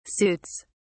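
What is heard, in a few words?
"suits", British English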